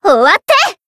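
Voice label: Voice finish, Japanese; orleya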